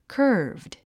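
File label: "curved", English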